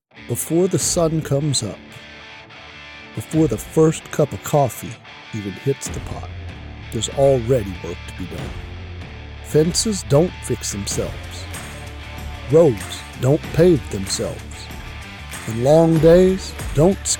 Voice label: Truck commercial with music